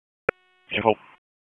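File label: Police Northwest District Dispatch; Raleigh; p25; wake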